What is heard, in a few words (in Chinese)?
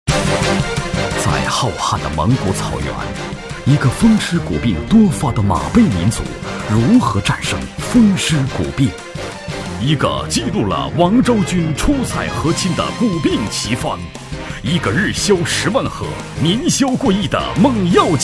男声 浑厚低沉 药品神秘风格